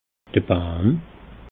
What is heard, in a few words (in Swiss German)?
"baam", alsace